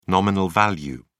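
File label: "nominal value", British English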